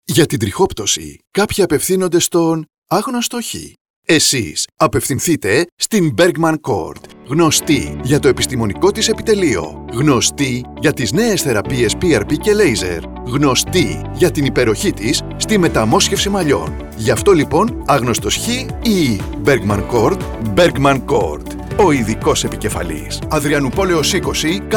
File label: radio spots; trixoptwsi metamosxefsi malliwn therapies